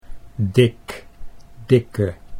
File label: "dik dikke", Dutch